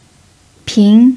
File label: "pin2", Chinese